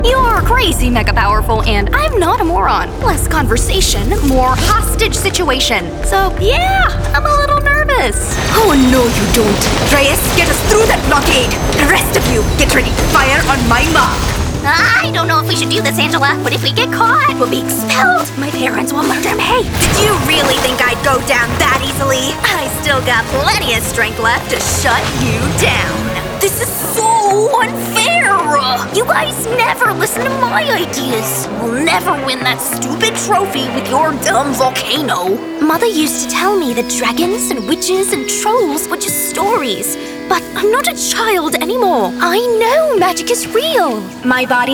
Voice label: Animation